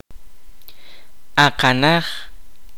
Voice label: "leg", Kaqchikel